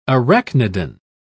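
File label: "arachnidan", British English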